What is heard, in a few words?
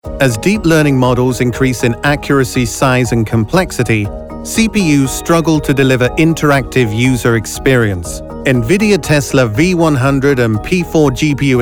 AI, british elearning voiceover